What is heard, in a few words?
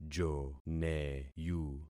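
"joneyu", concatenated syllables; Affixing experiment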